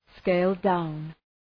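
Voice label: "scale-down" English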